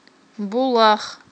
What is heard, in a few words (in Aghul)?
"булах", aghul